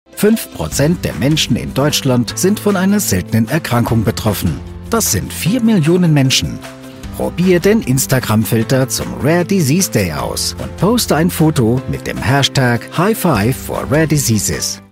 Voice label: Public Service Announcement, german